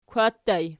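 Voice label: "kwatii" ergative plural, Archi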